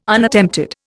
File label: "Unattempted", Indian